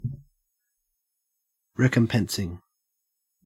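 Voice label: "recompensing", Australian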